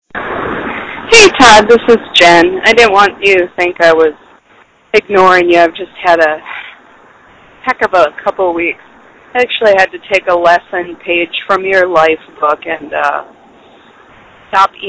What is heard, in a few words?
voicemail; with sender id